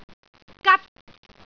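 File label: "gat1", Cantonese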